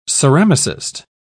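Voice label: "ceramicist", British English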